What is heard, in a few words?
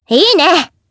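Vox Aff Japanese; Sonia; kings raid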